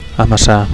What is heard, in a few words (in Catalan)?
"amassar", Catalan